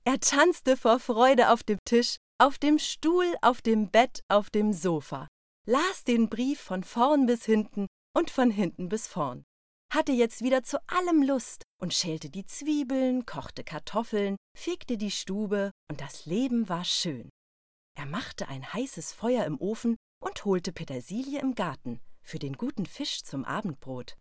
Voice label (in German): Hörbuch Kinder